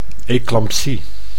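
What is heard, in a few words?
"eclmapsie", Dutch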